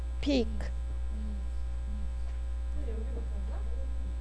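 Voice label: "pick", spoken word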